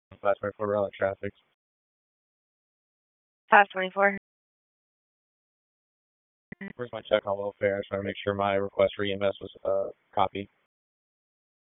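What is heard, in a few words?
Raleigh Police Northeast District Dispatch, p25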